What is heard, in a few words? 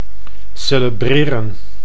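"celebreren", Dutch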